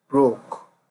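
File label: "broke", Nigerian Pidgin, male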